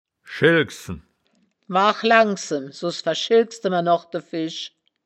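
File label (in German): "schelksen", wittlicher woerterbuch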